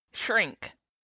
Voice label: sh initial clusters, english phonetics